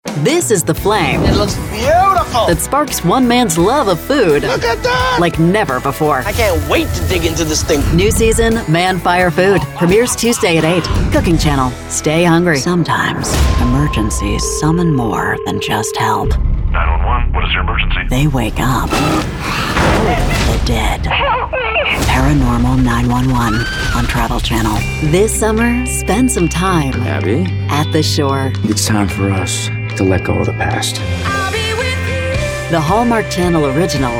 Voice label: TV Imaging Promo